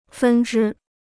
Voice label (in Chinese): "分支", Chinese